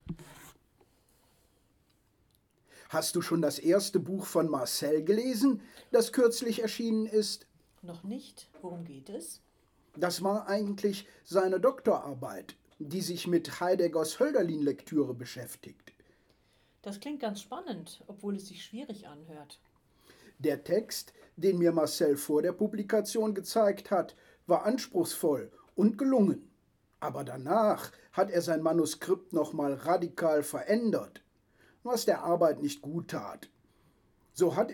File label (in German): Dialog